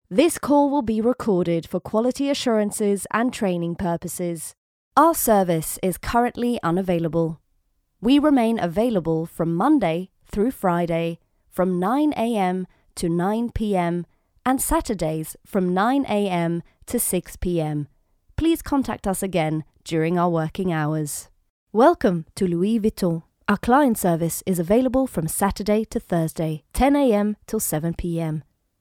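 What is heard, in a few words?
Norwegian, English, female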